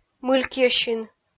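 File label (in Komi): "мылькйӧсин", Komi, female; kpv audio dict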